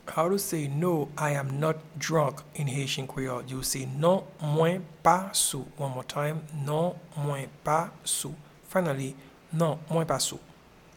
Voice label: No I am not drunk in Haitian Creole Non mwen pa sou